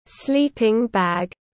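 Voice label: "Sleeping-bag", English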